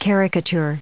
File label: "caricature", English